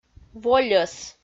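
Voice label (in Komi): "вольӧс", Komi, female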